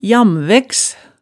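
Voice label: "jamvæggs", Hemsedal dialect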